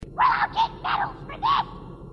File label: well all get medals for this, grunts